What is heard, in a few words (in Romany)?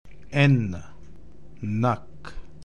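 "Nakh", Romani; Romska-alfabetet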